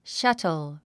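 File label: "shuttle", English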